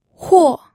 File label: "huo4", pinyin audio